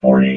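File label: "forty", robot voice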